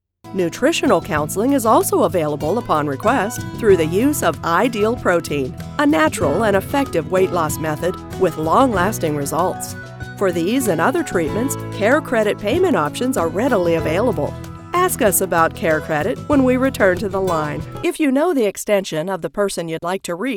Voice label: IVR On Hold Demo